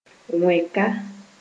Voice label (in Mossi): "wẽka", Moore